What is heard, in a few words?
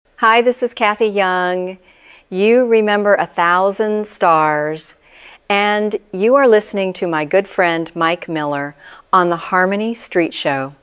promo